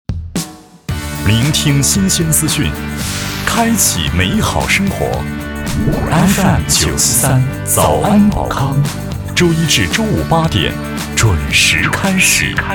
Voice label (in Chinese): 男 电台包装; 早安保康